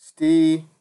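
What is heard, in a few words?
"still", Nigerian Pidgin, male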